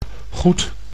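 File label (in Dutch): "goed", Dutch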